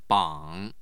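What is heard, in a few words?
"bang", Mandarin Chinese, tone 3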